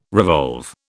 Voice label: "revolve", British